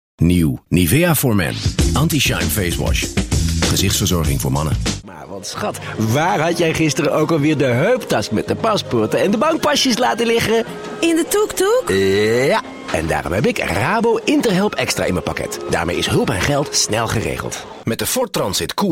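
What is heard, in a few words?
Dutch, General; GoLocalise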